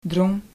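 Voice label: "drong", Dutch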